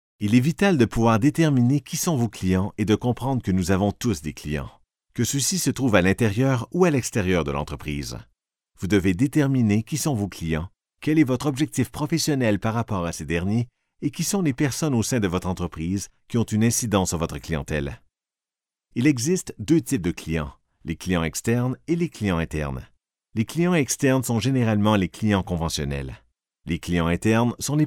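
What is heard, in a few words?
French Canada, male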